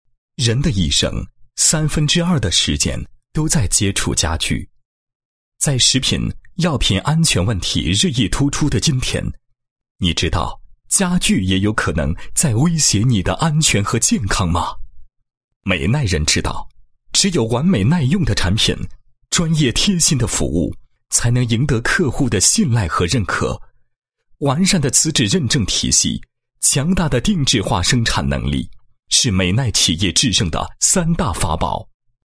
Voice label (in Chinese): 男 企业专题 企业形象宣传; 美耐